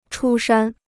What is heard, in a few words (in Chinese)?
"出山", Chinese